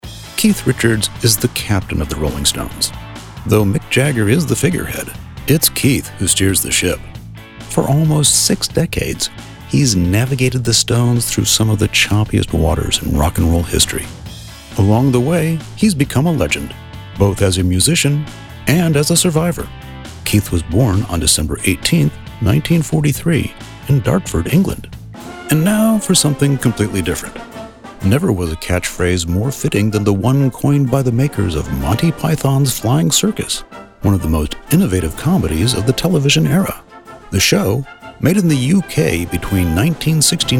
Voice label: Narrative